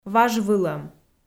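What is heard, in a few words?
"важвылӧм", Komi-Zyrian, female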